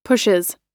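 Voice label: "pushes", American English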